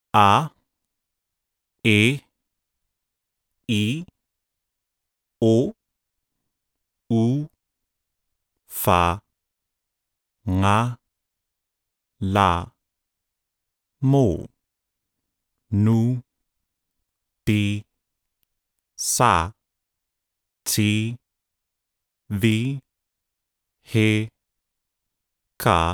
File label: Sāmoan alphabet male voice